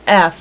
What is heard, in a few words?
"f" female, alphabet; Web Clip Empire 150,000